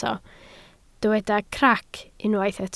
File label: "crac", Welsh